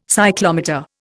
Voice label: "cyclometer", Indian